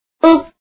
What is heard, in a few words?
"u" sound